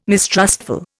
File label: "mistrustful", Indian